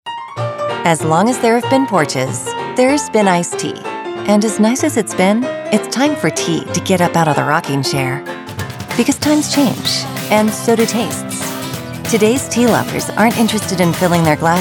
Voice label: Corporate Branding

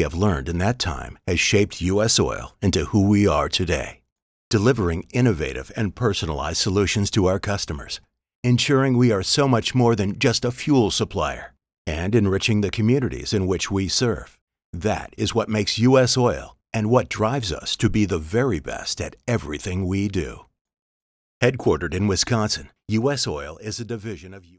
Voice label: voice over

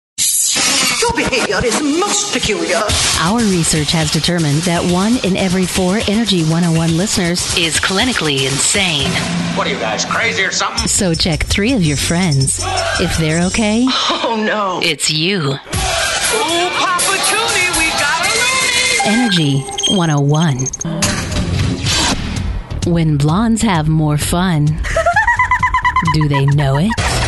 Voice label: Rock Radio Imaging

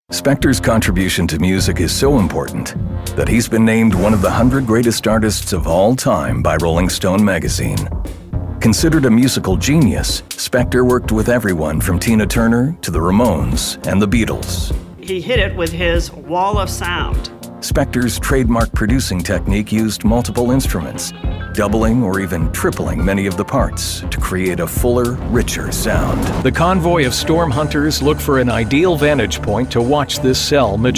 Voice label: Mid-Atlantic English TV Documentary

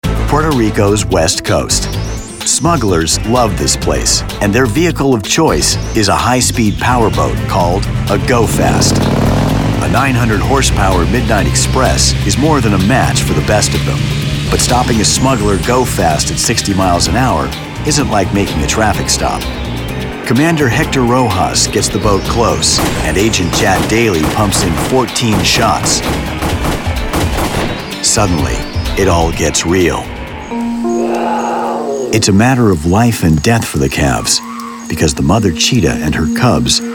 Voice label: Reality And Documentary

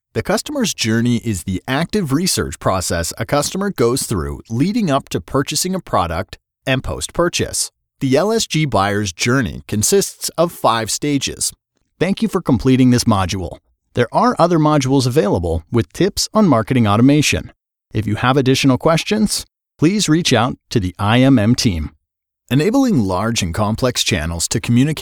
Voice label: Elearning

